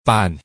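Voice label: Hakka "van2"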